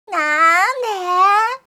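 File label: "nannde", Japanese, komaru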